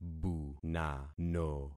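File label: "bunano", concatenated syllables; Affixing experiment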